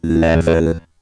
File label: Vocoder Level